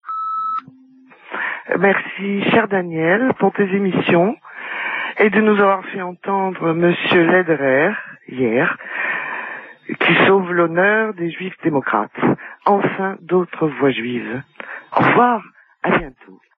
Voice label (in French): Autres voix juives repondeur